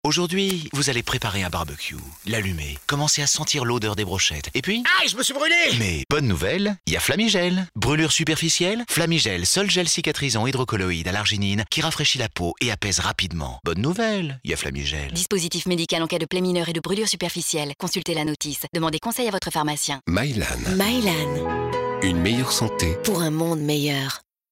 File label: Spot radio Barbecue; FLAMIGEL